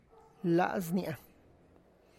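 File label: sole of my foot, Tlacochahuaya Zapotec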